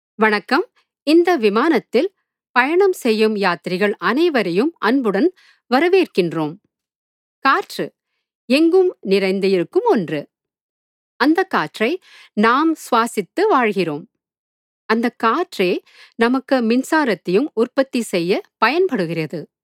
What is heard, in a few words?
TAMIL INFORMATION